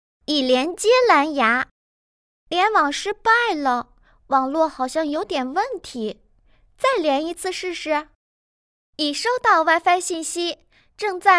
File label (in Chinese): 角色奶气女童声